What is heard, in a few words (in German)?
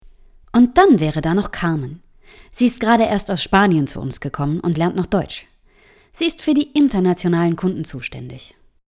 Ansage